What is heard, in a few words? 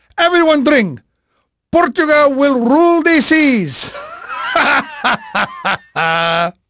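Portuguese male, voice; Pirates Of The Caribbean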